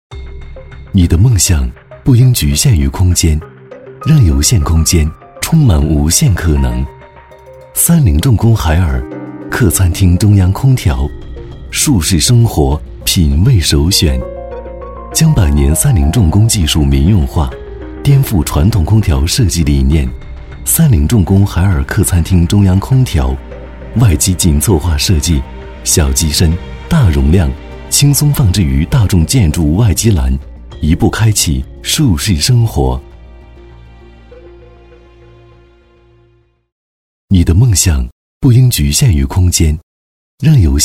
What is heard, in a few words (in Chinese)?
男 科技感宣传片; 三菱重工海尔客餐厅中央空调